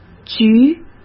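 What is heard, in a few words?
"局", Mandarin Chinese; chinese-flashcards; hsk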